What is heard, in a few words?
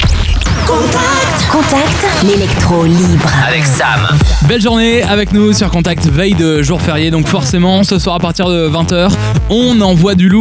Speaker Promo, FM, France